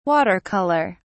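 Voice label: "water color", British English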